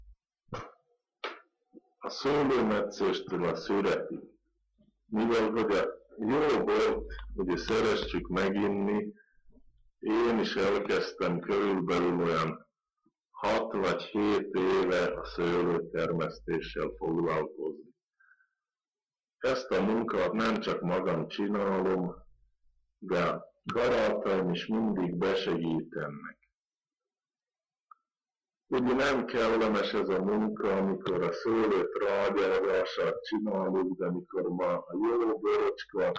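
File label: dialect collection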